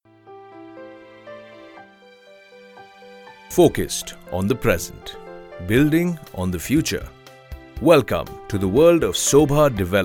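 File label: corporate english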